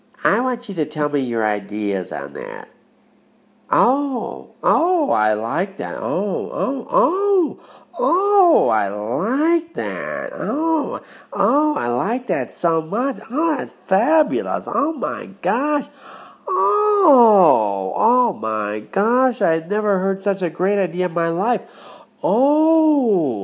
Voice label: Overly Enthused Person